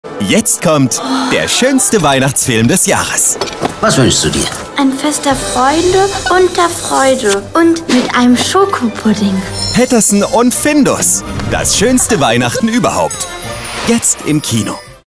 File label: TV Spot; PETTERSSON UND FINDUS DAS SCHOeNSTE WEIHNACHTEN UeBERHAUPT